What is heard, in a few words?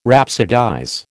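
"rhapsodize", American English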